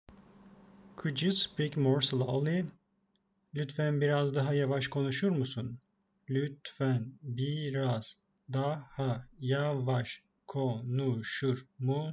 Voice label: could you speak more slowly, Turkish phrasebook; the talking turkish phrasebook

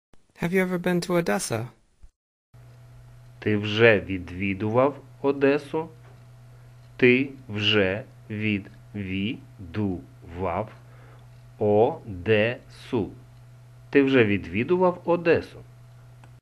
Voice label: UKR Have you ever been to Odessa

